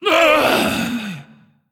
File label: Female Dragon Death